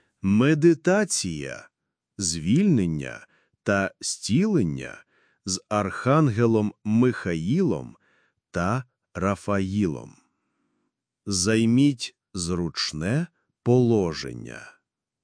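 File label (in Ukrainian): Медитація очищення та зцілення голос; Агхангели очищення і зцілення голос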